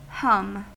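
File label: "hum", US English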